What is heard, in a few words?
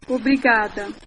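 thanks, Brazilian Portuguese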